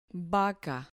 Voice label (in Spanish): "vaca", Spanish